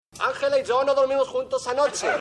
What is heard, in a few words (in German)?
Spanisch; Tony